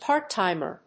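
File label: "part-timer", English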